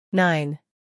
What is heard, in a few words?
"nine", English